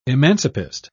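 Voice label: "emancipist", British English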